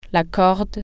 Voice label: "rope", French

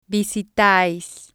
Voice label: "visitais", Spanish

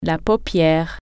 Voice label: eyelid, french

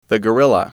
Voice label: "gorilla", English

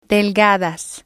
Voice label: "delgadas", Spanish

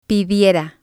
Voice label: "pidiera", spanish

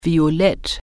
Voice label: "violet", German

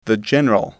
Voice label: "general", English

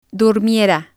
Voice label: "durmera", spanish

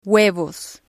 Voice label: "huevos", spanish

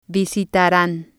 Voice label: "visitaran", Spanish

